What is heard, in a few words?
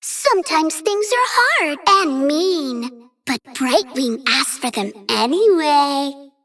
Female Dragon Bark; Brightwing